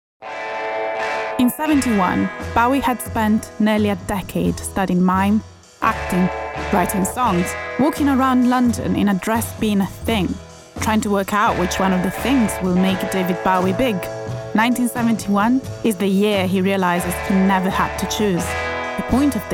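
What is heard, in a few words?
Italian, English accent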